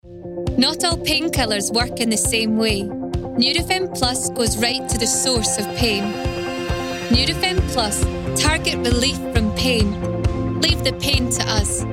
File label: Commercial; Nurofen Plus